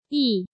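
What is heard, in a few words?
"Yì", Chinese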